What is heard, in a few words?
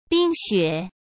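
"冰雪", Mandarin Chinese; chinese flashcards; hsk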